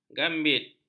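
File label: "gambit", Malay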